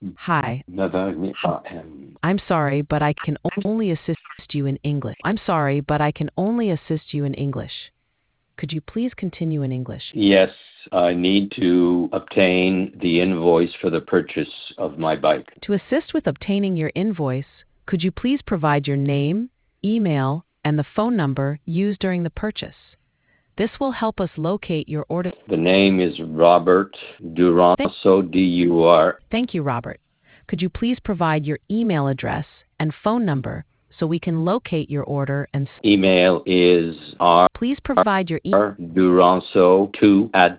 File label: conversation